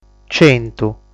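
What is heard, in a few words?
"Centu", Sardinian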